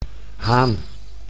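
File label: "haan", Dutch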